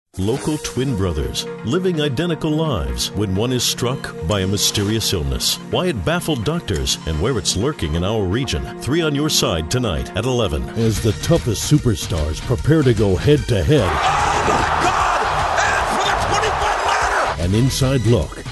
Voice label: TV Promo

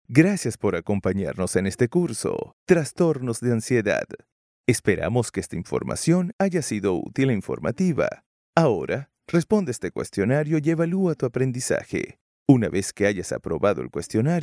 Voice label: E learning Spanish Voiceover

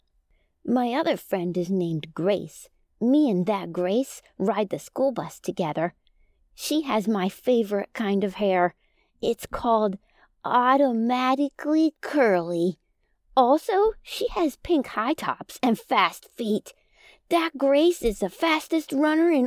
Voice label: Young Child s Voice

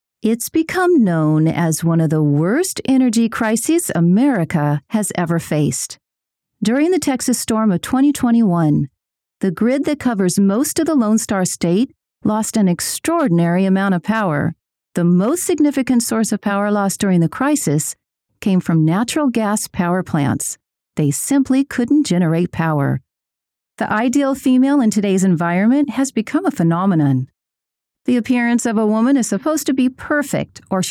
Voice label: Documentary Vox Only

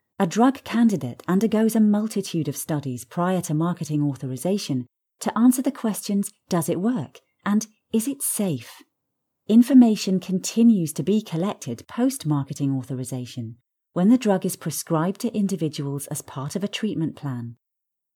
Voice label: E Learning

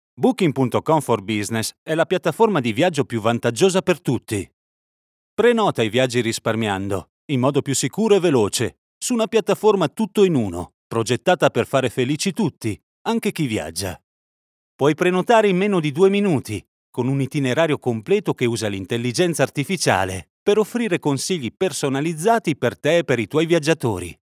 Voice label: ITA COMMERCIAL BOOKING

